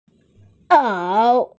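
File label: "ao3", Mandarin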